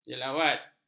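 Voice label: "jelawat", Malay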